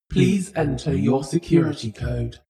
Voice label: shuttle female status report